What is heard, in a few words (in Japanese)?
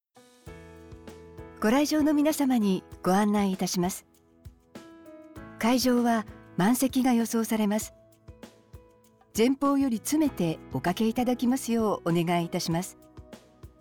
会場アナウンス